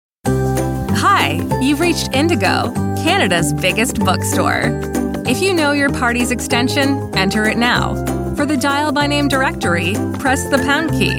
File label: American voice, telephone